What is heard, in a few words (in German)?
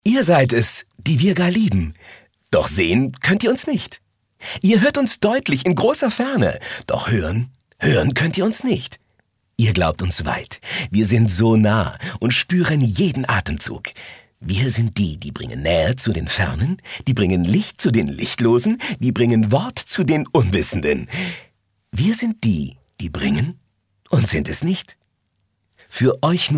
froehlich, maenner; engelszungen